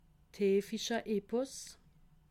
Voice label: "heefische", alsace

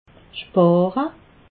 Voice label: "spare", alsace

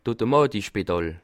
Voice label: "automatisch", Alsace

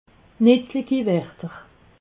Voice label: "nitzlichiwerter", alsace

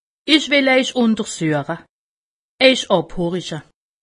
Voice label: "untersueche", alsace; medecine

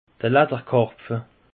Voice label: "ladderkarpfe", alsace; peche

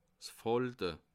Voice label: "falze", alsace

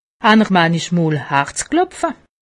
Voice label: "klopfe", alsace; medecine

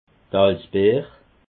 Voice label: "alsbeer", alsace

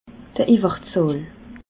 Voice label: "iwwerzahl", alsace

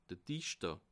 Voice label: "dichter", alsace

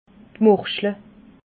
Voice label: "morchle", alsace

